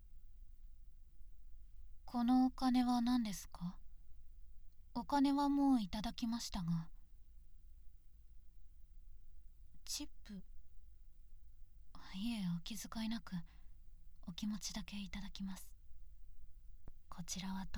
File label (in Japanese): チップを渡す, ASMR